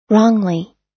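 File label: "wrongly", US English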